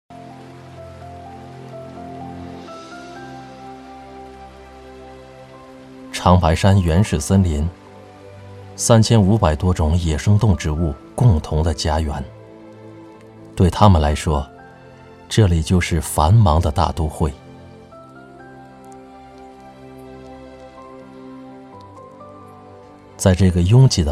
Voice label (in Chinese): 男 纪录片 自然